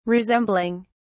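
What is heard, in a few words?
"resembling", British English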